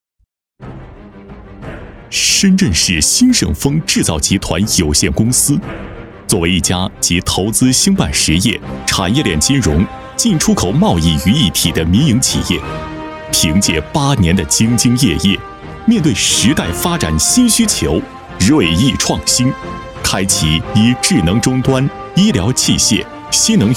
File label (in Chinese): 男 宣传片 大气浑厚 宣传片解说词; 鑫盛丰宣传片解说词